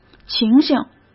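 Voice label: "情形", Mandarin Chinese; hsk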